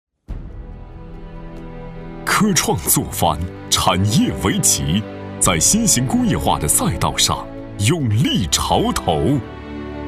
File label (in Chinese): 男 大气偏恢弘专题 新型工业化